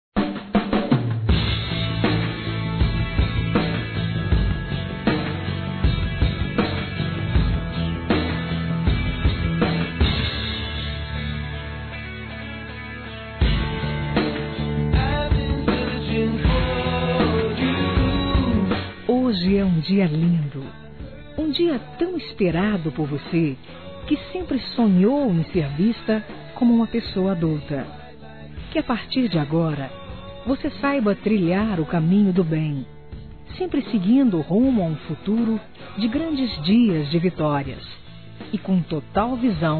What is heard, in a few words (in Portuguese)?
Aniversário 15 anos Feminino; Aniversários; Telemensagens